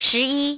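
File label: "ten one", English; iOS 4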